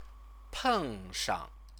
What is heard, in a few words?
"pengshang", Chinese